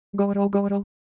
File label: Japanese, ideophones; Motion